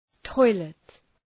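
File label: "toilet", English; {‘tɔılıt}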